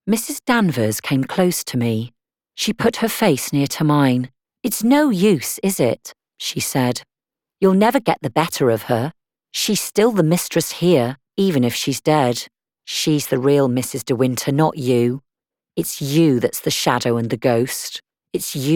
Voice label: female English Welsh English audiobook